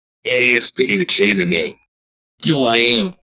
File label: a rapper saying, chant